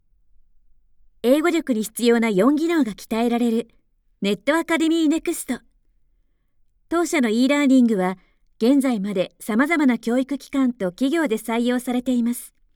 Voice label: japanese promos

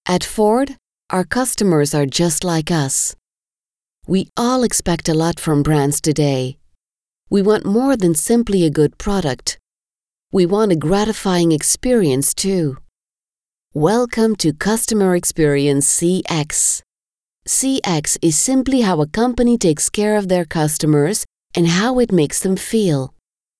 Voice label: english corporate videos, flemish

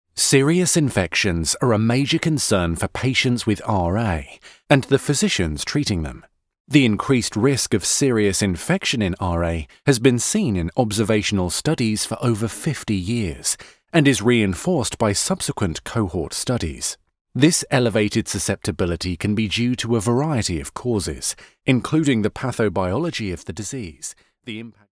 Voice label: english british e-learning

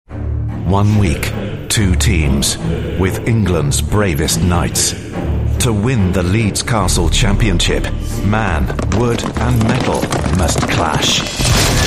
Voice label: english british movie trailers

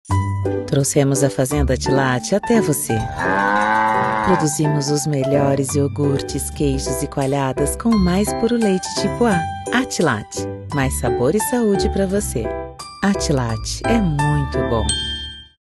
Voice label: portuguese brazilian promos, voice actors; atriz de voz profissional brasileira

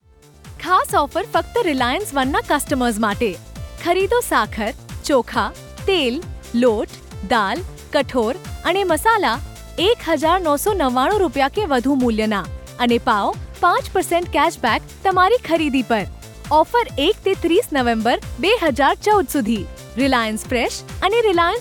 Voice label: gujarati promos; professionelle indische Sprecherin

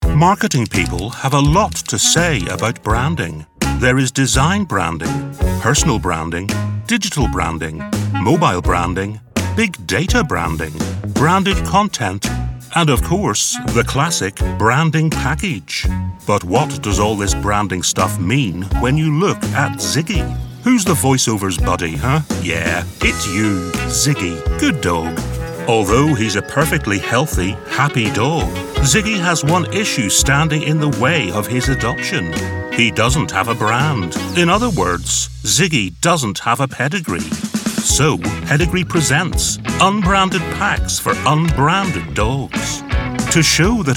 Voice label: English Irish explainer videos

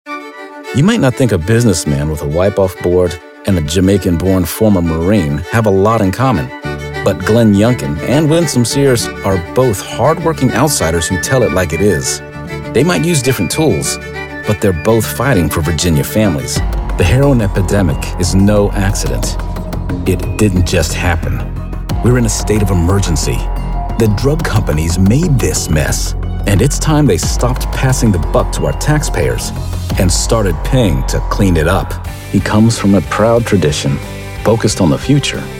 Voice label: english american political ads

